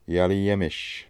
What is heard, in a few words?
"Yagliyemmish", pronounciations; Fourth Way; Beelzebub